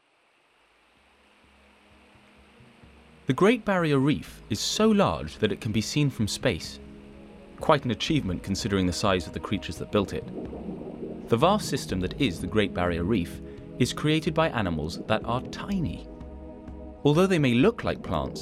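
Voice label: narration; Barrier Reef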